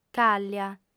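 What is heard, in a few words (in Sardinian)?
"càllia", female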